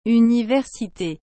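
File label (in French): "universite", French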